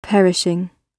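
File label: "perishing", British English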